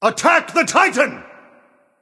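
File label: vox attack male; smite; zeus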